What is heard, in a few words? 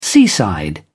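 "seaside", British English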